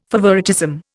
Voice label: "favouritism", Indian